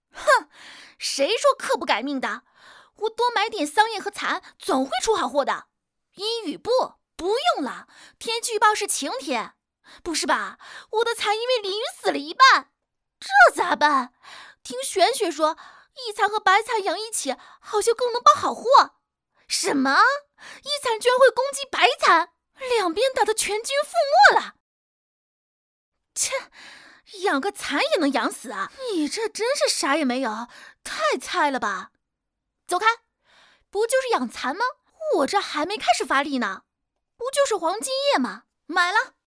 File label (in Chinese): 短视频角色夸张